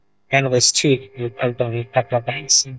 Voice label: CHIME real VoiceFixer CAF REAL; CleanMel

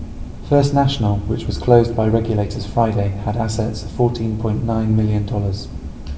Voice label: Reverb far

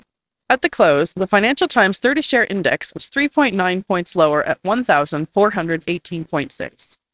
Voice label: CHIME real, Demucs

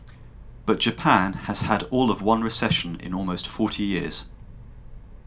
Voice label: noisy REVERB simu near room; CleanMel; single-channel Mel-spectrogram denoising and dereverb

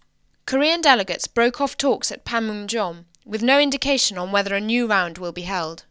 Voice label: clean, Sim Data far room; REVERB simu

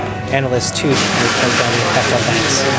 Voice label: noisy CHIME real CAF REAL; CleanMel

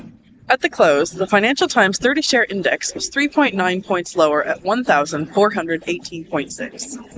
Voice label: CHIME real, online FullSubNet; CleanMel

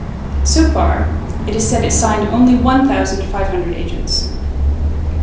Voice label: noisy REVERB real far room; CleanMel; single-channel Mel-spectrogram denoising and dereverb

